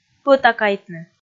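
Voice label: "пӧтакайтны", Komi, female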